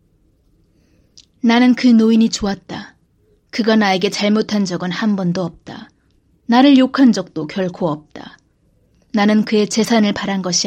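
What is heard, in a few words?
general Korean English; GoLocalise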